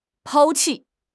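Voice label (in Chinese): "抛弃", Chinese; HSK6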